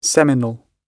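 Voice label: "seminal", English; oxford api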